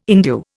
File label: "endue", Indian